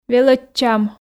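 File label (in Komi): "велӧдчам", Komi, female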